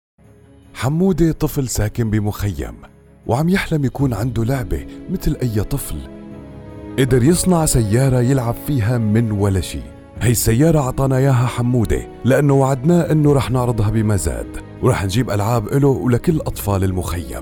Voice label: Syrian Accent

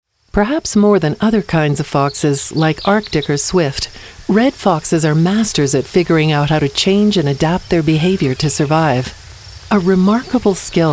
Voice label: Narr

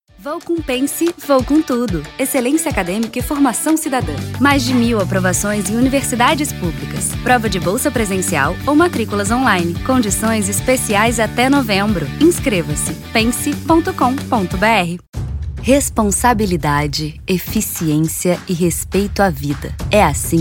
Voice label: Spots

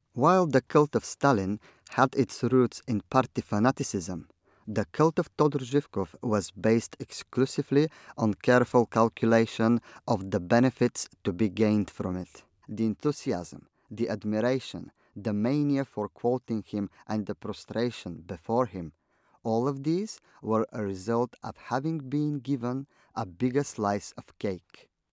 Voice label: Corporate

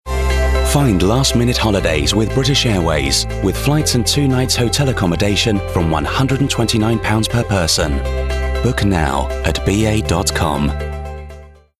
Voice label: Television Commercial Short

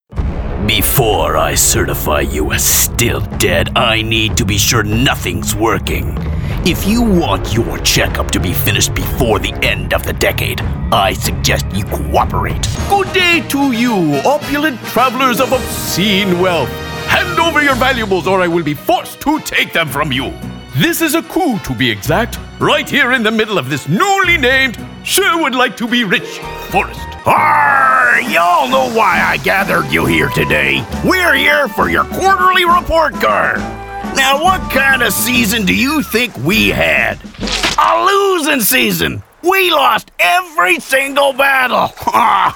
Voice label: Animation

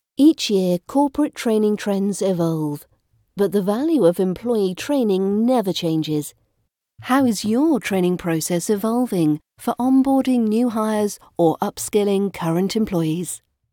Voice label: e-learning

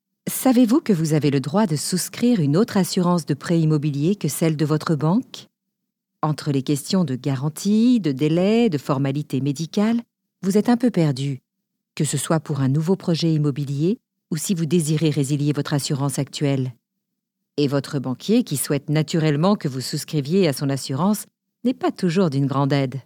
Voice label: Insurance Ad

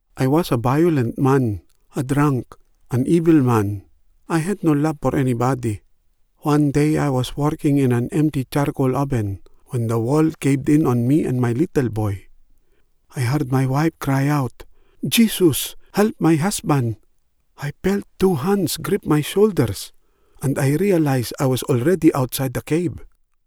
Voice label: Neutral Cambodian accented English

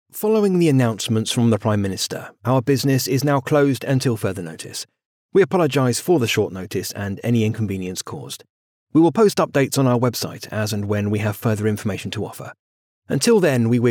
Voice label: COVID Phone Messages

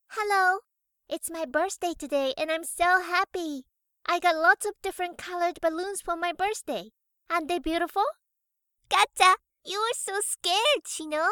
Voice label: anime voice over ENG

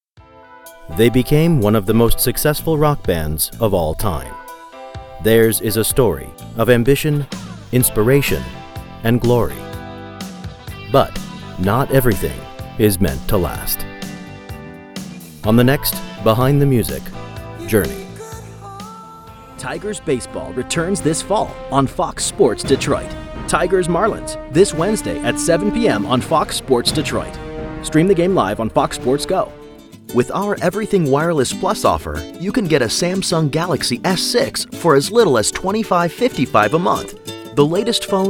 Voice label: Rapid Fire Commercial

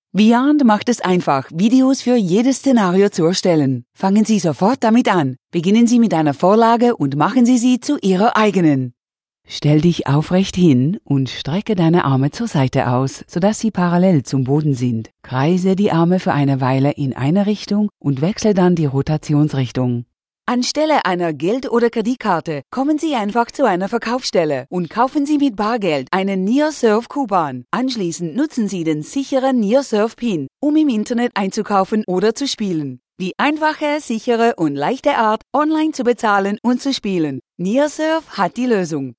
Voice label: German Commercial

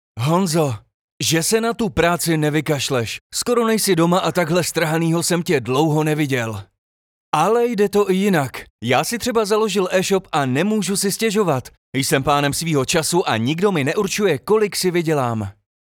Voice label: Commercial

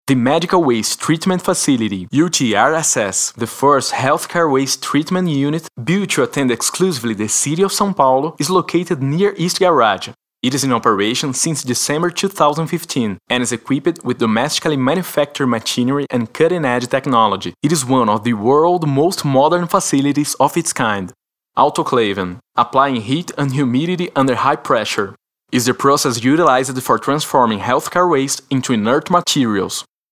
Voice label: Inglês Brazilian Accent

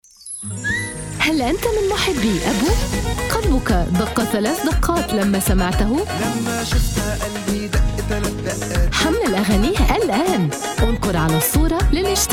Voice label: Modern Standard Arabic App Promo; Anghami Plus

